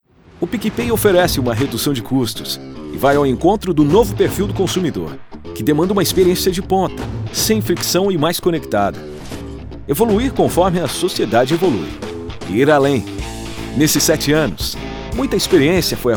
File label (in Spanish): comercial natural